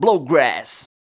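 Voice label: vocal male, Dance Vocals, spoken words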